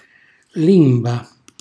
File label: "limba", Calabrese